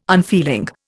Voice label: "unfeeling", Indian